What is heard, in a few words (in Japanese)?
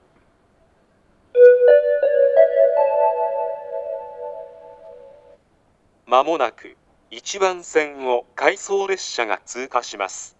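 通過放送, 旭型放送(男声), 回送列車 通過; 回2016Hでの収録です。回送列車のパーツは当列車限定でした。; 牛浜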